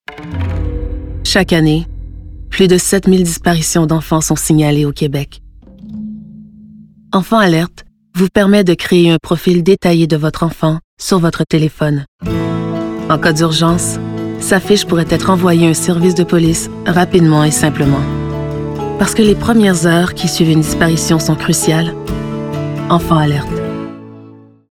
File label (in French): Enfant alerte Fictive Personnage Sérieux Douce Sobre, Bienveillante - Séreuse - Québécois soutenu